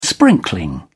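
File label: "sprinkling", English, British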